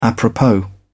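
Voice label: "apropos", British English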